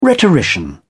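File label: "rhetorician", British English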